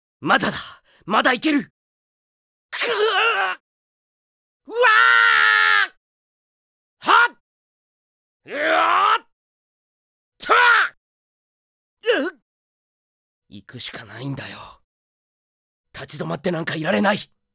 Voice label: character voice, BOY; D-XHIRD